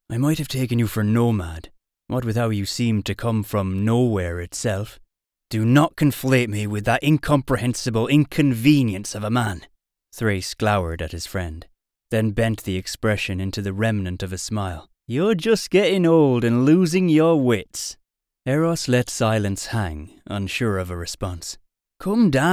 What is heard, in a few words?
Audiobooks